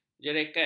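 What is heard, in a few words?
"jereket", Malay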